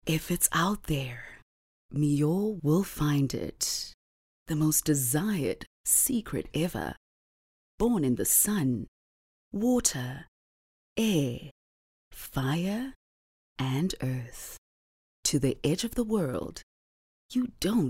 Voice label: English Intimate